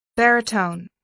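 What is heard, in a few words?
"barytone", English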